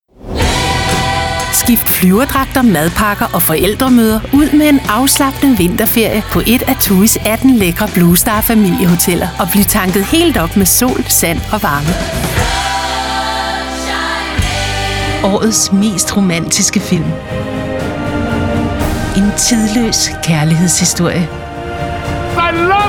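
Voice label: Danish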